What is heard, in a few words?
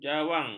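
"jawang", Malay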